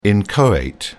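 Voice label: "inchoate", British English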